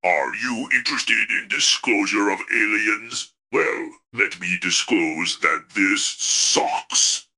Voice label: upset; fertig classic games